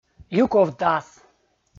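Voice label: "юковтас", Komi, male; kpv audio dict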